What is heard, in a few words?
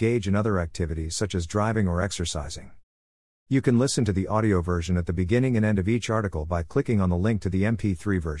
talking reviews news in english